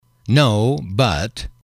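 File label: "no but", English, male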